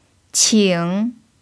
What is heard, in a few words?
"qing3", mandarin pinyin